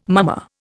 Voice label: "mummer", Indian

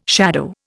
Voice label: "shadow", Indian

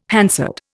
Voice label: "Hansard", Indian

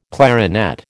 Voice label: "clarinet", American

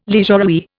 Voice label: "leisurewear", Indian

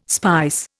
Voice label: "spice", Indian

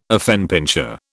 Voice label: "affenpinscher", British

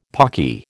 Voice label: "pawky", American

